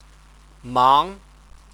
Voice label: "mang", Chinese